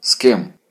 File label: dialogue, Ukrainian